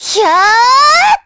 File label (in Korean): Vox Casting, kr; voices; heroes; kingsraid